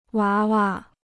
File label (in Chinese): "娃娃", Chinese